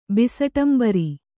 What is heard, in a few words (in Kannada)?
"ಬಿಸಟಂಬರಿ", kannada